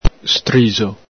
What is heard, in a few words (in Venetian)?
"strixo", Veneto; graze, scrape; el Galepin; striscio